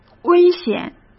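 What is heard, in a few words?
"危险", Mandarin Chinese; hsk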